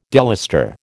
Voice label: "delustre", American